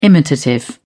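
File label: "imitative", British English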